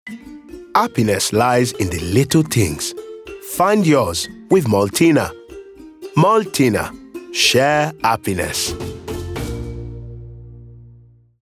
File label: nigerian